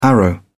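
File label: "arrow", British English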